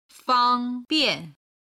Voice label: "fang1bian4", chinese; HSK3級

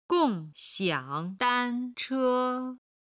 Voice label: "gong4xiang3dan1che1", chinese

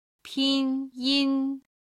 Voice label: "pinyin", Chinese

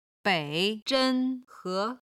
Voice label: "bei3zhen1he2", Chinese

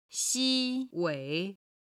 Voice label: "xi1 wei3", Chinese

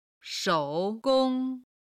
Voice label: "shou3 gong1", Chinese

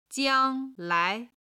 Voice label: "jiang1lai2", chinese

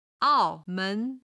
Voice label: "ao4men2", chinese

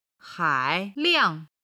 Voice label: "hailiang", Chinese

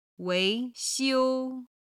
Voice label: "wei2xiu1", chinese

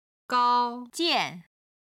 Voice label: "gao1 jian4", Chinese

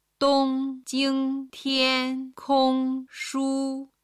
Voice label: "dong jing tian kong shu", Chinese

